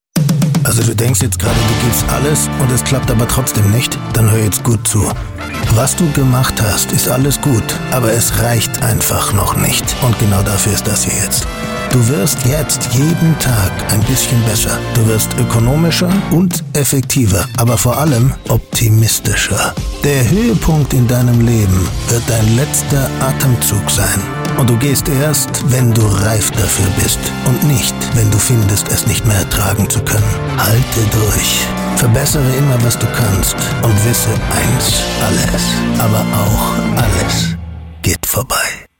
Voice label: MOTIVATIONAL